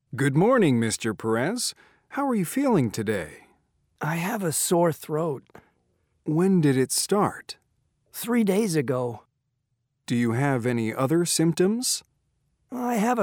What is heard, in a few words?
Going to a Doctor Dialogue Reading